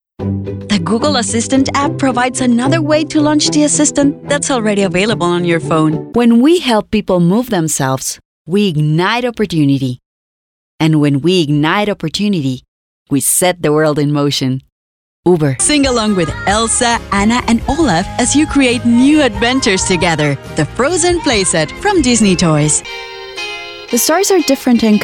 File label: Commercial English